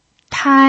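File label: "tai", first tone, Mandarin Chinese pinyin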